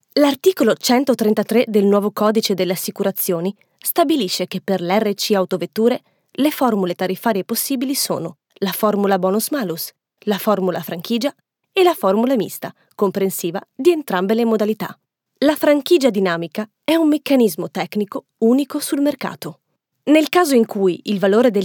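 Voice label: e-learning, Italian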